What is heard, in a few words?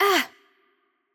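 Hungarian hurt light voice; bloom minigame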